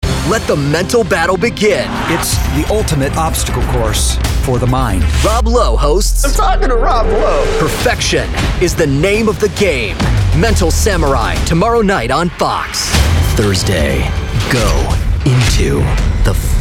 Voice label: Promo